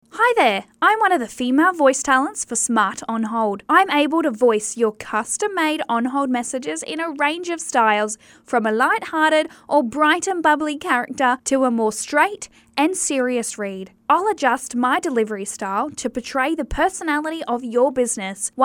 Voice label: FEMALE; Voice Over artists; Smart On Hold Messages